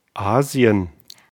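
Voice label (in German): "Asien", German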